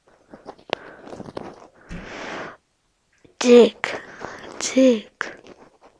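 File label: "jig", spoken word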